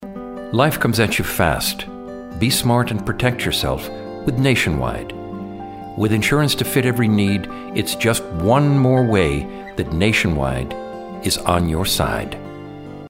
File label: male Commercial for Insu; english; Commercial for Nationwide Insu